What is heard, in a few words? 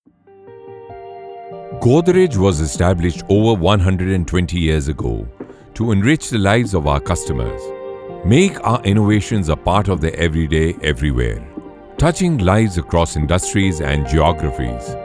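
Corporate English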